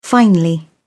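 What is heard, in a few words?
"finely", British English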